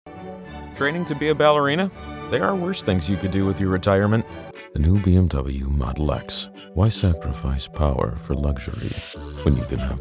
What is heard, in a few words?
commercial